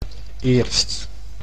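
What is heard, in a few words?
"eerst", Dutch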